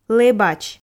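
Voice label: "лэбач", Komi, female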